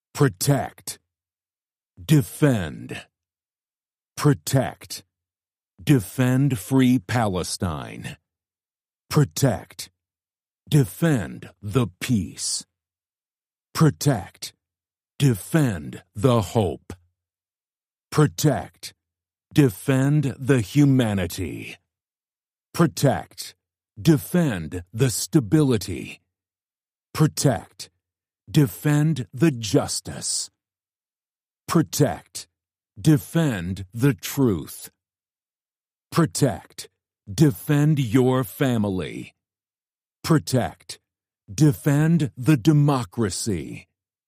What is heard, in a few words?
Voice Over, English; MAN